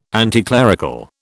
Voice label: "anticlerical", British